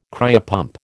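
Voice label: "cryopump", American English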